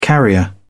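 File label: "carrier", English, British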